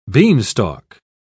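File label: "beanstalk", English, British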